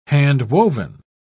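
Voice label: "handwoven", British English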